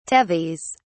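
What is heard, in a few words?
"tethys", English, British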